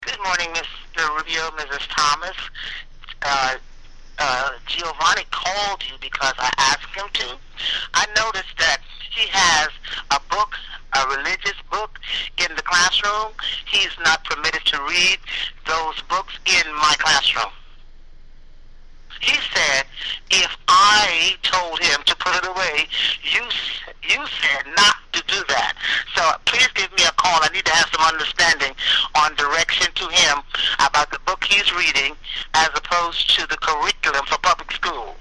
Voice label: Voice Message